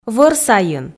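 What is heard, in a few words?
"вӧр сайын", Komi, female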